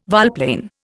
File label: "volplane", Indian